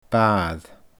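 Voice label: "baair", Scottish Gaelic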